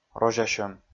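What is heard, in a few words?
"розясьӧм", Komi, male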